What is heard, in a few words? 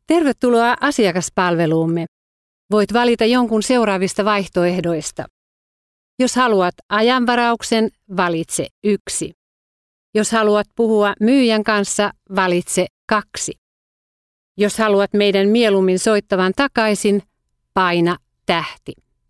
Finnish, vo